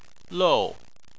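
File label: "lou", Chinese, fourth tone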